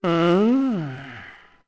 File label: Embarrassed; Tingles Father; Zelda; MM